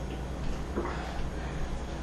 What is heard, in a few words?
words unclear